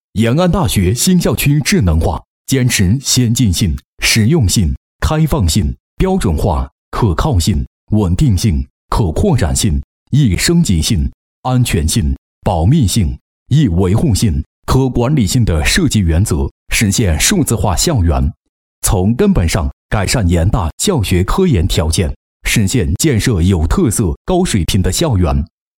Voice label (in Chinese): 男, 工作; 延安大学新校区智能化工作